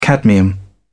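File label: "cadmium", British English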